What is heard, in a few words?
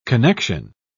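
"connexion", British English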